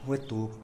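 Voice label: "wetub", scarab-beetle, Tlacochahuaya Zapotec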